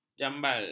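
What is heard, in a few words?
"jambal", Malay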